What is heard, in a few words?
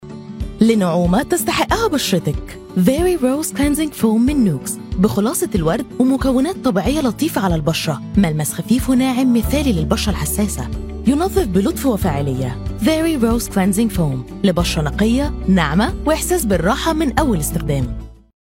Egyptian Arabic Cleansing foam; NUXE Very Rose